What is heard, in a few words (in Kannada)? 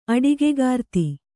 "ಅಡಿಗೆಗಾರ್ತಿ", Kannada